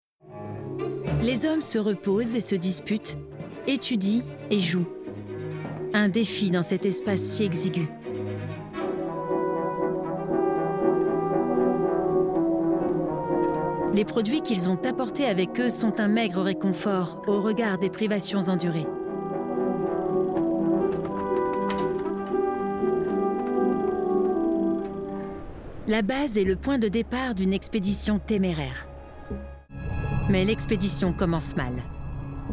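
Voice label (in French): Doc, voix off; Arte; Antarctique Les explorateurs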